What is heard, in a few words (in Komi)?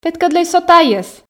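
петкӧдлӧй со тайӧс, Komi, female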